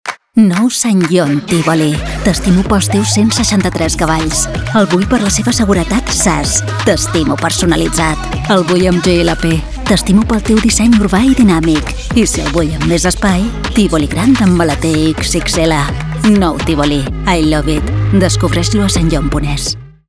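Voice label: RADIO, Catalan; SSANGYONG TIVOLI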